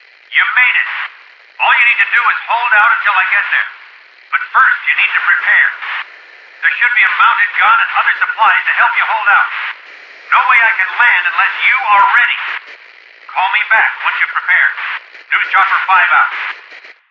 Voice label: Chopper Pilot Call